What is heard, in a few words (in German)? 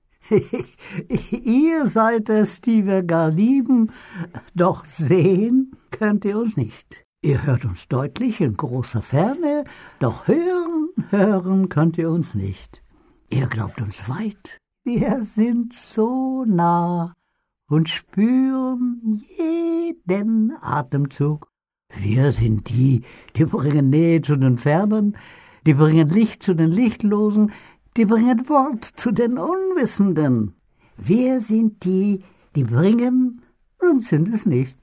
fröhlich